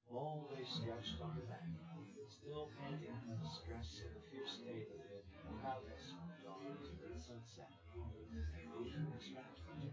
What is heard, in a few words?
male